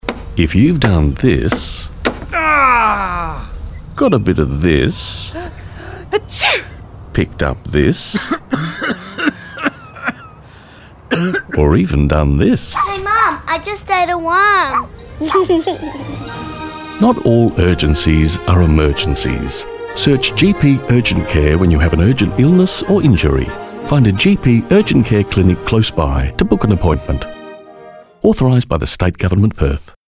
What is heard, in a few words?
RADIO